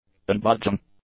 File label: "unbudging", English; adjectives